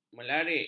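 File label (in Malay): "melarik", Malay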